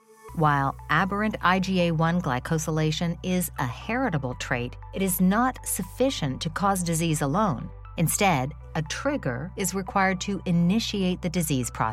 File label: Conversational articulate